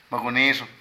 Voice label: Alacrán, Zapoteco de Petapa; Animales; Vocabulary

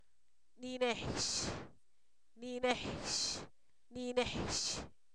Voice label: fruta, Zapoteco, san miguel albarradas; comestible

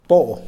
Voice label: "mes", Mixe, hombre; Tiempo

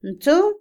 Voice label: "miedo", Otomí, mujer; Emociones; Vocabulary; INALI

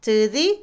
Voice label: encontrar, Otomí, mujer; INALI

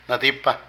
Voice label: Fuerza, Zapoteco de Petapa; Vocabulary

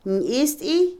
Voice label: cítrico, Otomí, mujer; INALI

